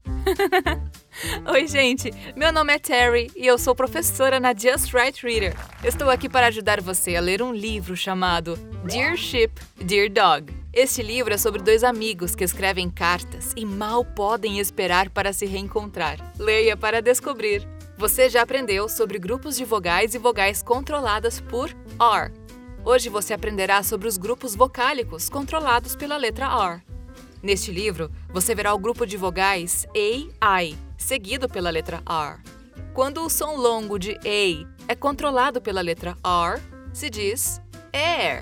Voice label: Education Children Energetic Authentic Engaging Voiceover